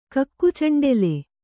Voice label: "ಕಕ್ಕುಚಂಡೆಲೆ", kannada